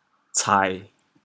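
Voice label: "cai1", Teochew, chaoyang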